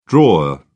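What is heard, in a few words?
"drawer", British English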